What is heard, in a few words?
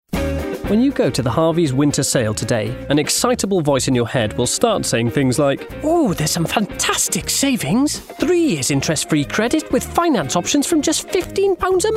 COMMERCIAL; Harveys Furniture